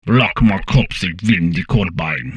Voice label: humanoid portrait male; Stellaris; humanoids

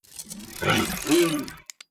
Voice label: robot mammalian greetings; Stellaris; synthetic dawn

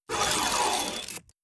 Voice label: robot molluscoid greetings; Stellaris; synthetic dawn

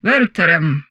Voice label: humanoid female; Stellaris; humanoids

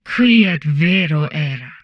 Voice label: humanoid portrait female; Stellaris; humanoids

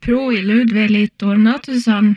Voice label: humanoid female; Stellaris

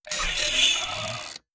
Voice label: robot fungoid greetings; Stellaris; synthetic dawn

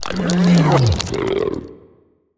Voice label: portrait synthetic; Stellaris; machineage

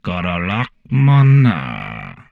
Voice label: humanoid portrait male; Stellaris; humanoids

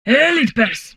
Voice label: humanoid portrait female; Stellaris; humanoids

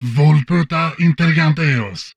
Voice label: humanoid male; Stellaris; humanoids

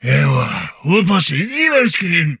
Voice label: humanoid portrait male; Stellaris; humanoids

